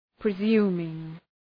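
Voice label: "presuming", English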